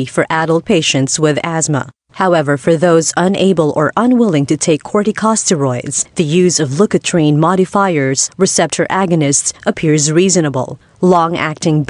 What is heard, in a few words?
Tagalog Medical